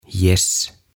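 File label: "Gäss" Swedish; Hanhet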